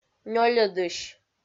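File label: "нёльӧдысь" Komi-Zyrian, female; kpv audio dict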